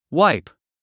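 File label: "wipe", English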